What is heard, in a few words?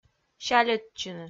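"сяльӧдчыны" Komi, female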